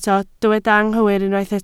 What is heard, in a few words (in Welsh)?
"anghywir", Welsh